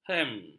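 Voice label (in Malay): "hem", Malay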